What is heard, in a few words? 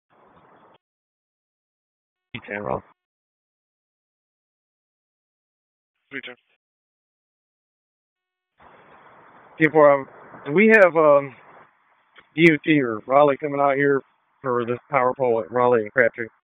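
Police Northeast District Dispatch, Raleigh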